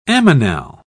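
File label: "ammonal", English, British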